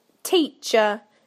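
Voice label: "teacher", British English; PictureDictionary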